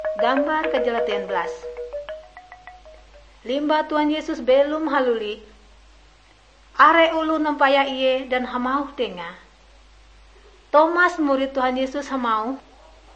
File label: kabar bahalap good news ngaju, drama